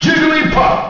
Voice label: English Announcer; Jigglypuff; SSBM

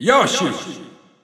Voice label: Italian Announcer; Yoshi; SSB4-SSBU

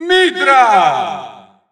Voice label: Italian Announcer; Mythra; SSBU

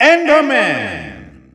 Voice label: French EU Announcer; Enderman; SSBU

